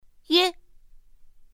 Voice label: "ye", pinyin; pinyin2; pinyinji

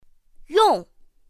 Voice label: "yòng", pinyin; pinyin2; pinyinji

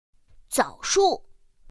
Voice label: "zǎoshù", pinyin; pinyin2; pinyinji

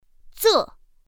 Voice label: "zè", pinyin; pinyin2; pinyinji

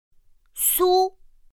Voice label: "sū", pinyin; pinyin2; pinyinji

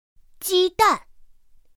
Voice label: "jīdàn", pinyin; pinyin2; pinyinji

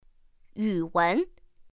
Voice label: "yǔwén", pinyin; pinyin2; pinyinji

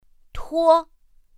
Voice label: "tuō", pinyin; pinyin2; pinyinji

